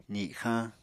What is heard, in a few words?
"zixañ", Apatani